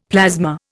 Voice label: "plasma", Indian; Dictionary

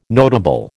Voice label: "notable", American

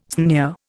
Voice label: "sneer", Indian

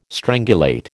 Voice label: "strangulate", American

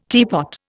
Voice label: "teapot", Indian

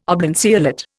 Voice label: "oblanceolate", Indian

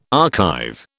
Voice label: "archive", British

